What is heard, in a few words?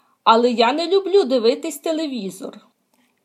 dialogue, Ukrainian